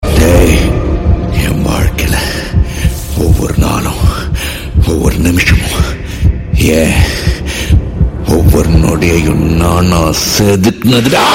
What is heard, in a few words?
Dialogues Ringtone